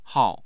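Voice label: "hao", Mandarin Chinese, tone 4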